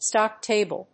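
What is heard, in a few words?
"stock table", English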